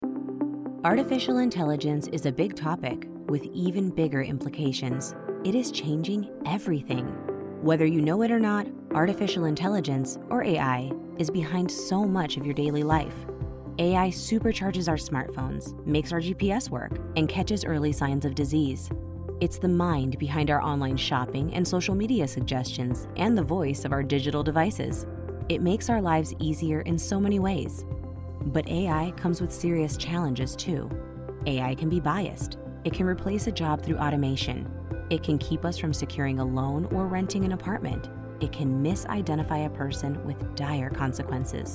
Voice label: NonProfit Political Explainer Caring Natural Engaging Female English